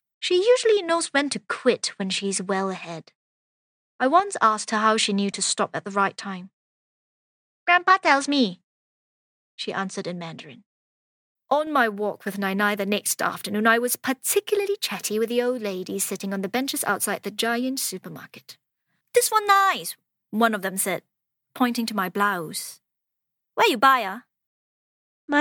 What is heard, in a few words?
Asian Accented English